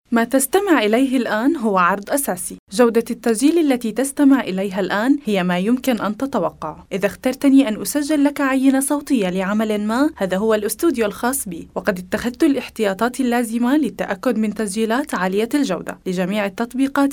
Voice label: Arabic Female